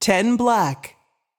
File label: voice Over, roulette; europeanroulette3